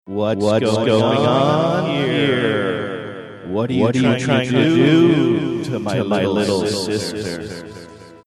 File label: saying whats going on here echo reverb